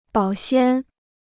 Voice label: "保鲜", Chinese

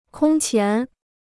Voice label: "空前", Chinese

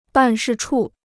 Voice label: "办事处", Chinese

